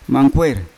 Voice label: "mangkwei" chicken, Yawa